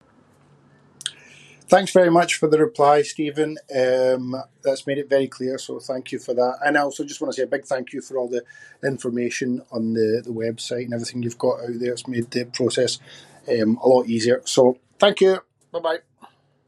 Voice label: testimonial message